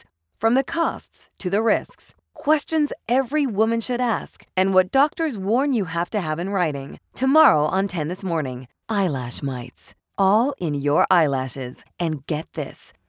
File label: tv affiliate women